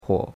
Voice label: "huo3", chinois; Cours de Chinois

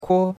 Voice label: "kuo1", chinois; Cours-de-Chinois

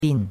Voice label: "bin4", chinois; Cours de Chinois

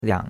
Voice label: "liang3", chinois; Cours-de-Chinois

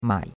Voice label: "mai3", chinois; Cours-de-Chinois

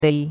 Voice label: "dei1", chinois; Cours-de-Chinois

